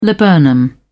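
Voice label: "laburnum", British English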